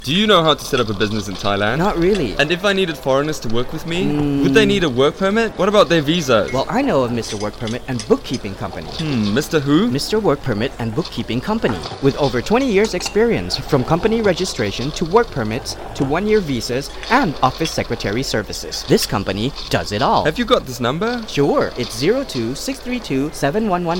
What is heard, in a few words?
Advertising Spot; FM88